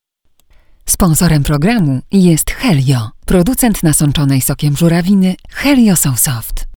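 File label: voice female advert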